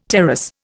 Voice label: "terrace", Indian

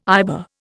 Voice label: "IBA", Indian

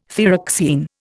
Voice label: "thyroxine", Indian

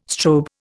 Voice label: "strobe", Indian

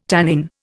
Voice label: "tannin", Indian

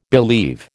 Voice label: "believe", American

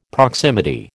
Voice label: "proximity", American

